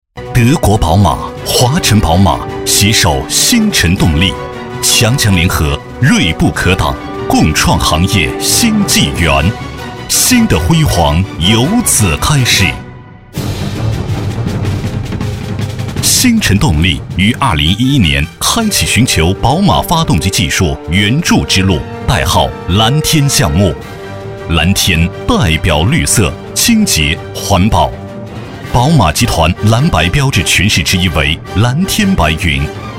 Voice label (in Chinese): 男 专题 企业宣传片; 新晨动力